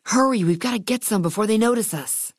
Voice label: female adult